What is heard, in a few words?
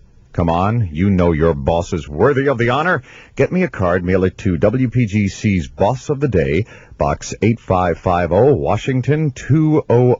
morning show drops